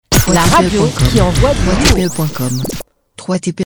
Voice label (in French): La radio qui envoie du lourd femme